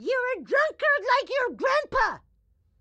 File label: grandma chopper annoyed; Blind Drive